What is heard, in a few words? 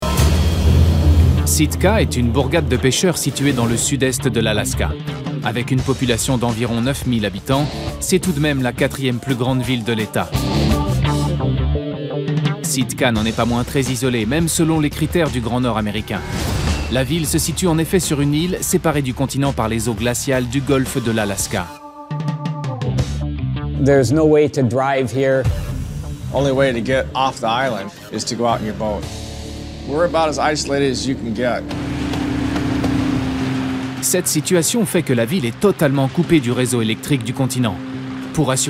Narration; Alaska